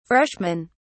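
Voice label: "freshmen", British English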